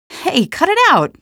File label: cit hit, female; Half-Life 2; trainyard